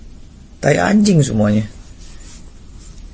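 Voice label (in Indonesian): suara marah, angry voice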